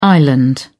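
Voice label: "island", British English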